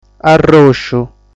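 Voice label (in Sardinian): "Arròsciu", Sardinian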